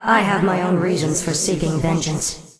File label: Angry; warcraft3; Evil Sylvanas